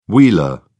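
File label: "wheeler", British English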